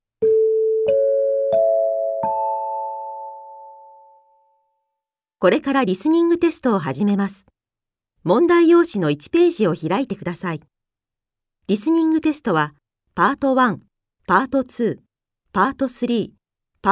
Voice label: English listening